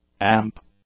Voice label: "amp", English

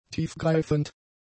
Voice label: "tiefgreifend", German; adjectives

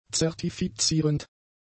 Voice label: "zertifizierend", German; adjectives

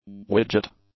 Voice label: "widget", English; nouns

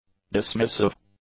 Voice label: "dismissive", English; adjectives

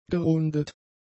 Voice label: "gerundet", German; adjectives

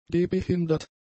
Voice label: "gehbehindert", German; adjectives

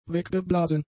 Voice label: "weggeblasen", German; adjectives

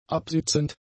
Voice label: "absitzend", German; adjectives

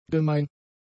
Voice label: "gemein", German; adjectives

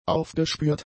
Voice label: "aufgespürt", German; adjectives

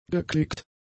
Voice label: "geklickt", German; adjectives

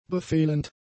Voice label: "befehlend", German; adjectives

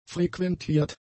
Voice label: "frequentiert", German; adjectives

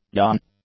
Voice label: "yon", english; adjectives

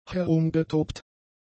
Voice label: "herumgetobt", German; adjectives

